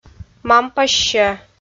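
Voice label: "мампассьӧ", Komi, female; kpv; audio dict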